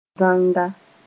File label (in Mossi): "zanga", moore